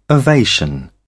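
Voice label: "ovation", British English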